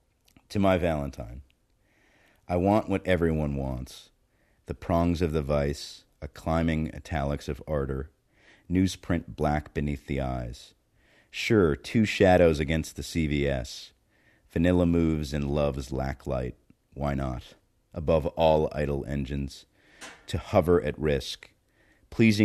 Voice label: poem; weekend america